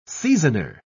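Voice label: "seasoner", British English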